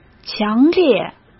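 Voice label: "强烈", Mandarin Chinese; chinese-flashcards; hsk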